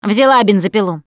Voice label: melee weapons, russian, Survivor Voice Producer